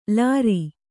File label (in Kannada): "ಲಾರಿ", Kannada